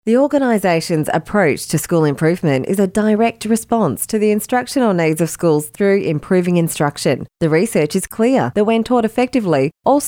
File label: E Learning and Training